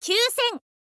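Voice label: "kyuusen", Japanese, girl; voice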